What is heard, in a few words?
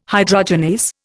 "hydrogenase", Indian